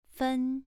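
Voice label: "fen", Mandarin Chinese, second tone, pronunciation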